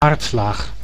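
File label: "aardlaag", Dutch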